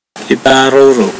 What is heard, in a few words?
"butterfly", pohnpeian